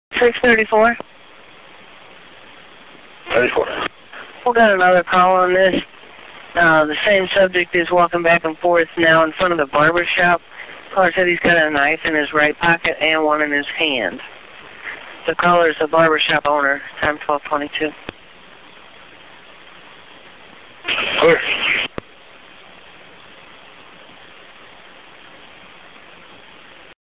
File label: Dispatch